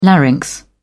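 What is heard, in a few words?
"larynx", British English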